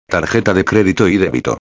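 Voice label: "tarjeta de credito y debito", Spanish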